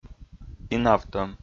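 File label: "инавтӧм", Komi, male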